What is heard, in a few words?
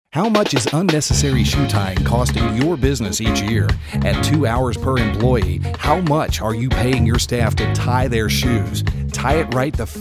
Radio Ad; MIND; Shoe Tying